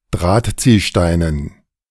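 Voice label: "Drahtziehsteinen", German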